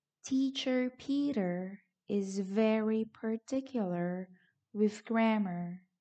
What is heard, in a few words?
Tongue Twister ɚ